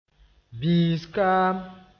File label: "bĩis-kaam", moore